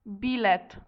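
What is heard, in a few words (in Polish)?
"bilet", Polish; multimedia glossaries; transport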